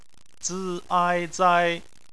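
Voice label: "zai", Mandarin Chinese; phonetics; bpmf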